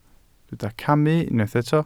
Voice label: "camu", welsh